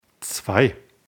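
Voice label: "zwei", German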